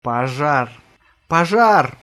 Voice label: fire, russian

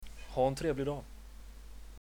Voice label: nice day, swedish

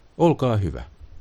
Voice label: please, finnish

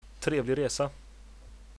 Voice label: bon voyage, swedish

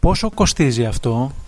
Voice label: how much, Greek

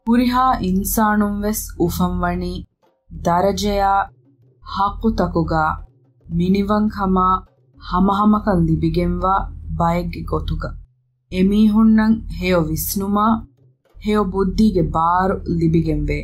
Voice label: Maldivian

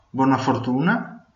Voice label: good luck, venetian

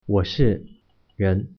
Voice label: im from, mandarin

